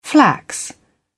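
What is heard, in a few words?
"flax", British English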